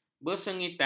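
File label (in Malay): "bersengitan", Malay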